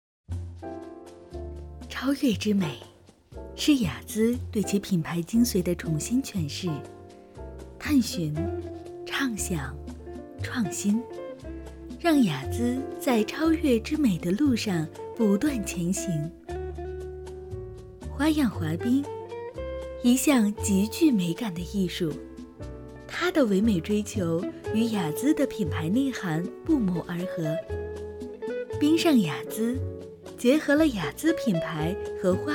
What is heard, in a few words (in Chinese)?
自然讲述类品质广告, 女, 中年（36-54）, 普通话, 温柔甜美